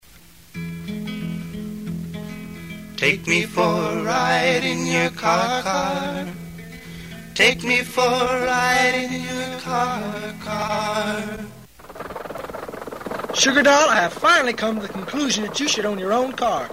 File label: Commercial; Triple Trapper; Ac Triple-Trapper Old Filter Commercial - a CSUN Project